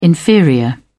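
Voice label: "inferior", British English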